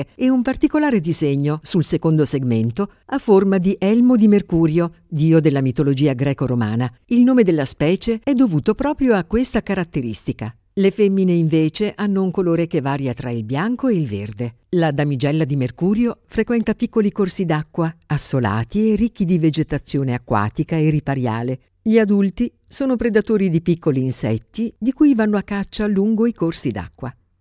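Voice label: Italian, Documentary; GoLocalise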